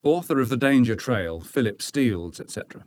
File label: vocoded